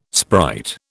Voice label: "sprite", British